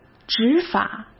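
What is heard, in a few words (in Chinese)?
"执法", Mandarin Chinese; chinese-flashcards; hsk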